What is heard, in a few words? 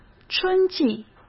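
"春季", Mandarin Chinese; chinese flashcards; hsk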